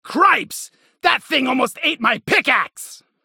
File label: Killcry Cave Leech